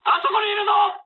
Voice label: enemy detected mood high, speech jp; War Thunder

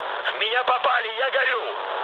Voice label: on fire, mood low, speech ru; War Thunder

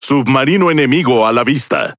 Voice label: Es Speech Detection Enemy Sub; World Of Warships

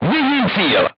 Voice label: voice message target lock, crew tanks czech

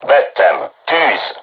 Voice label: voice message art shoot, hungarian

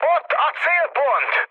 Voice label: near waypoint, mood high, speech hun; War Thunder

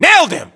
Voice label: inform killfirm infantry, US

